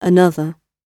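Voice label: "another", British English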